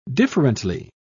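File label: "differently", British English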